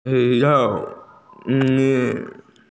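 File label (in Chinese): "cao3 mei2" Chinese, female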